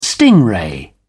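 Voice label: "stingray", English, British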